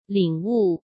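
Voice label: "领悟", Mandarin Chinese; chinese flashcards; hsk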